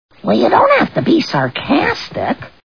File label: sarcastic; marge; Simpsons